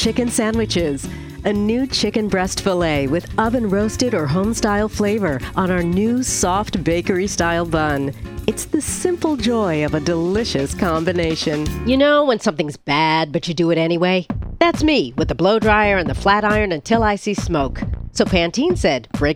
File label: commercial